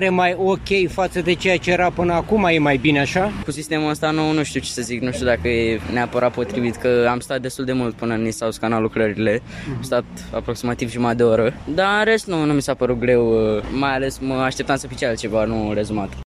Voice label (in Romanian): Vox elevi